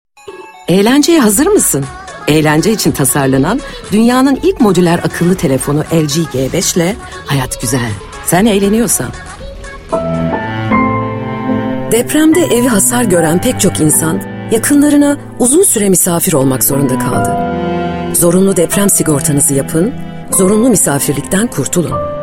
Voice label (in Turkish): reklam